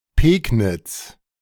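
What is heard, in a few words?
"Pegnitz", German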